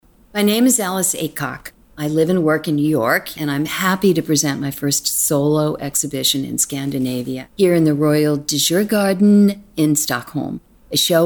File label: AUDIOGUIDE intro ENG